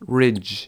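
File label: "ridge", English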